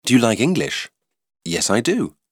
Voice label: english like dialogue